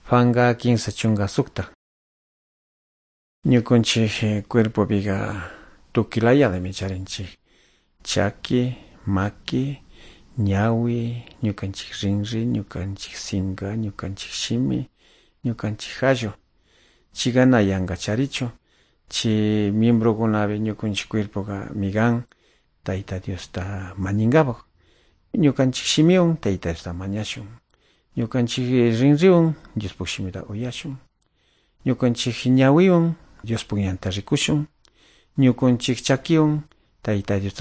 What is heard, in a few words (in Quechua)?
good news quichua de calderon